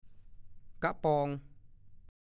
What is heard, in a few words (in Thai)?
"กะปอง", ภาษาโคราช; 1. ภาชนะเก็บข้าวเปลือก สานด้วยไม้ไผ่ขนาดใหญ่กว่ากะป้อย